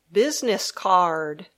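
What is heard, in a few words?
"business card", English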